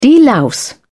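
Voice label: "delouse", British English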